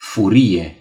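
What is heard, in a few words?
"rage", Romanian; gcompris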